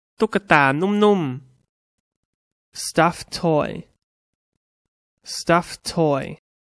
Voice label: "stuffed toy", English; Toys